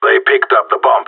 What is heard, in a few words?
enemy picked bomb, radio; GSG9